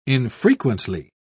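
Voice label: "infrequently", British English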